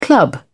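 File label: "club", English, British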